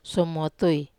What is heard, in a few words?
"somotui", Wamesa